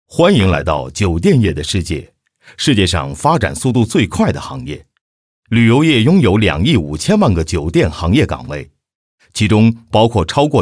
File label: MANDARIN Male Adult; Ker Sound Studio Shanghai China